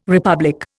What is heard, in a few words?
"republic", Indian